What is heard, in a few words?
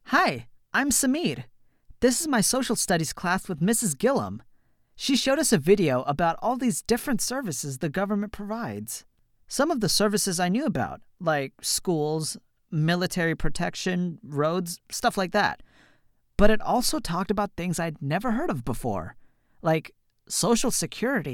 eLearning, voiceover